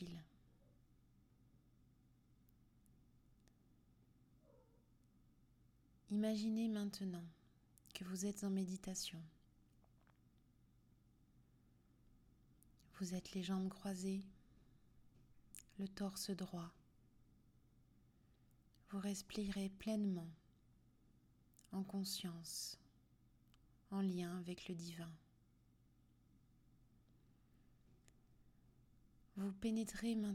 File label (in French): Meditation Glande Pineale